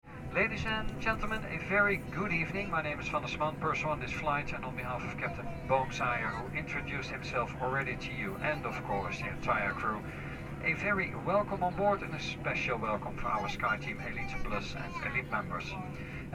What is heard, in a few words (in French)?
filtrage AirPlane Annonce